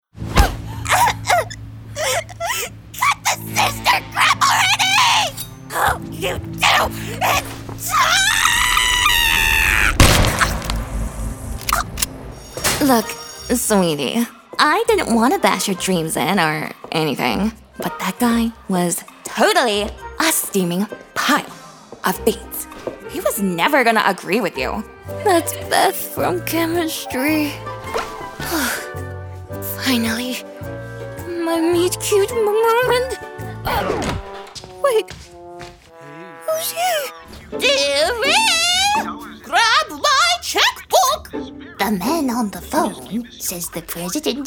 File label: Character